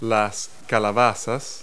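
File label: "squash", vegetable; Multi-Lingual Talking Picture Dictionary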